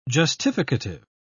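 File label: "justificative", British English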